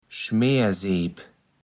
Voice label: "Schmeerseep", platt

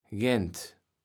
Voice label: "Gent", Platt

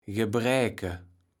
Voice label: "Gebräke", Platt

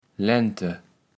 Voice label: "Lente", kaevels platt

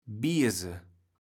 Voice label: "birse", kaevels platt

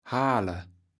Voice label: "hale", kaevels platt

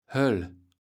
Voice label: "Höll", kaevels platt

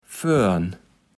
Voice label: "Föörn", Platt

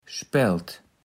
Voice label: "Spält", platt

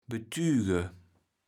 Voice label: "betüge", kaevels platt

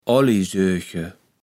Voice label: "Oallijsöche", Platt

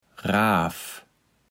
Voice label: "Raav", Platt

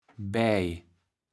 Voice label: "baej", kaevels platt

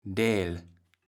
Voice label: "Däl", kaevels platt

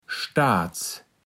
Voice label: "staats", Platt

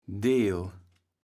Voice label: "Deel", kaevels platt

